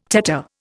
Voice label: "tetter", Indian

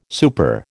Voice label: "super", American

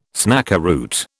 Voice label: "snakeroot", British

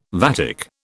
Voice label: "vatic", British

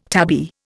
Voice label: "tabby", Indian

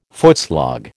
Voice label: "footslog", American

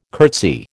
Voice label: "curtsy", American

